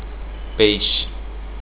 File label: fish, portuguese